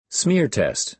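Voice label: "smear test", American English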